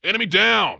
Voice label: punk enemy down, vox; Dystopia; vox new